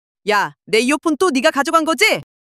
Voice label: anger; monologue

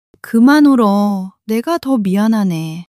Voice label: sadness, monologue

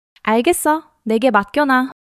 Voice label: joy; monologue

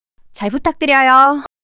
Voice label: fluster, monologue

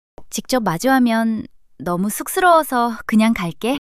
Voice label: shy; monologue

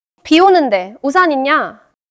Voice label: neutral, monologue

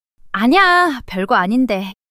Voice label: fluster; monologue

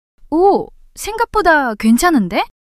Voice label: surprise, monologue

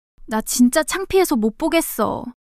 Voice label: shy; monologue

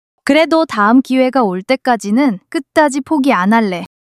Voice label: excitement

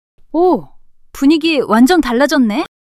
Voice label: surprise, monologue

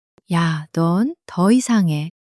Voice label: sulk, monologue

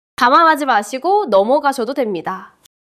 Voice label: neutral, monologue

